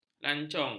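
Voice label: "lancong", Malay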